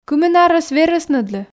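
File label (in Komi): кымын арӧс верӧсныдлы, Komi, female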